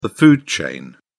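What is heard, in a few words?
"food chain", British English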